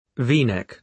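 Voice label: "v-neck", British English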